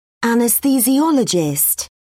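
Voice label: "anesthesiologist", British English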